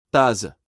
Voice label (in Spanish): Taza en portugues